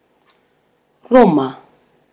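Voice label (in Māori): "roma", Māori word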